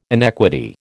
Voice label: "inequity", American

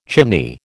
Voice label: "chimney", American

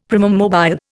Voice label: "primum mobile", Indian; Dictionary

